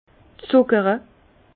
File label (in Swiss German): "zuckere", Bas Rhin; vin; enrichissement